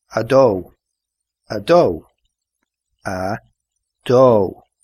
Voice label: two irish